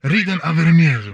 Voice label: humanoid male; Stellaris; humanoids

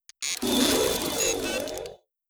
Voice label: robot fungoid greetings; Stellaris; synthetic dawn

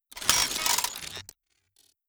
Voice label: robot default greetings; Stellaris; synthetic dawn

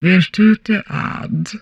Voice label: humanoid female; Stellaris; humanoids

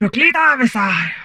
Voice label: humanoid female; Stellaris; humanoids

